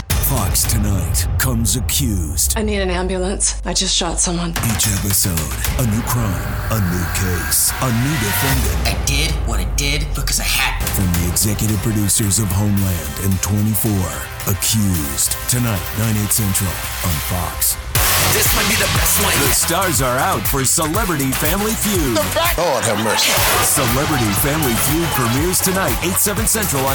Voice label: promo men

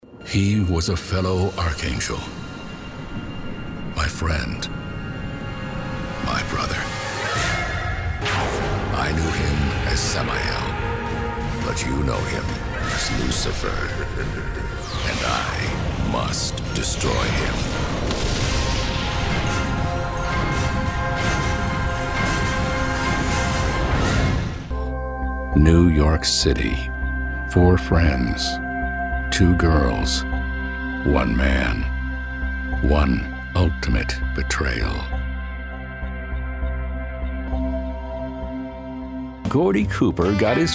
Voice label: trailer

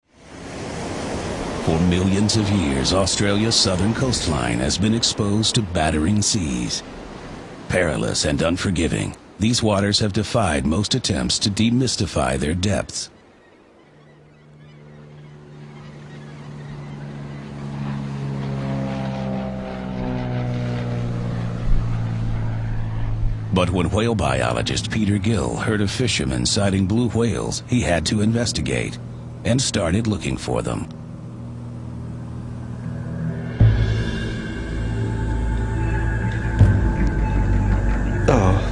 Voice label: narration

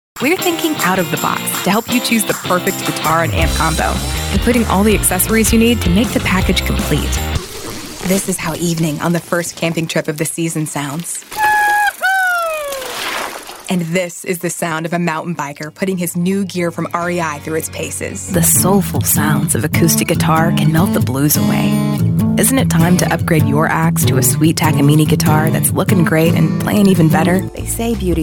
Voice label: promo, women

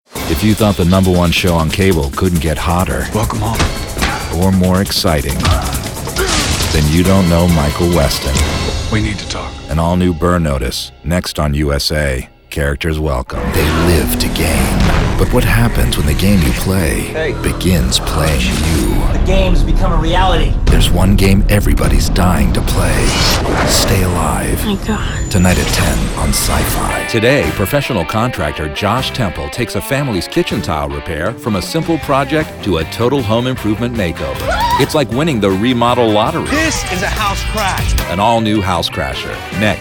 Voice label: promo network; men

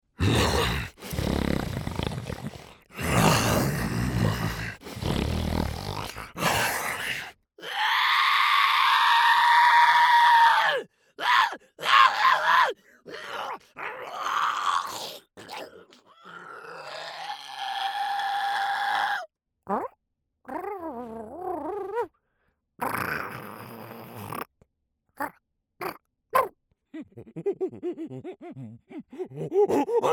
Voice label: animation men creatures, voices men

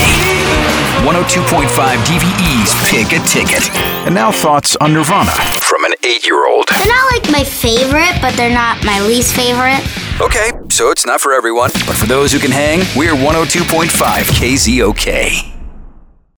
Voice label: radio men class rock; radio imaging

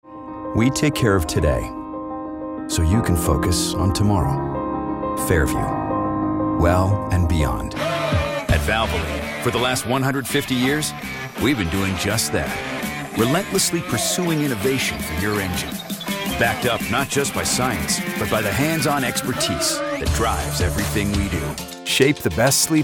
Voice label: commercial, men

